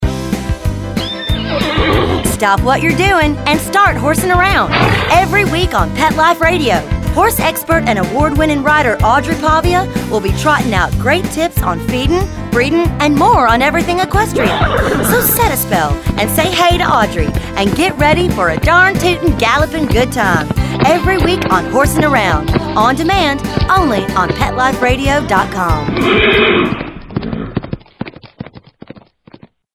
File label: Promo; Horsing Around; PetExpo